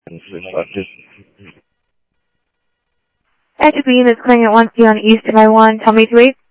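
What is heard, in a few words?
Sheriff East County, scanner audio trunk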